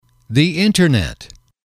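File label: "internet", English, male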